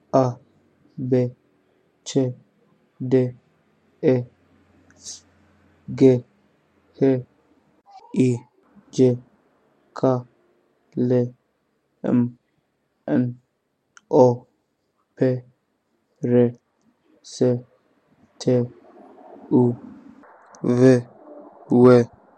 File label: alphabet swahili